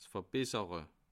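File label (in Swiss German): "verbessere", Bas Rhin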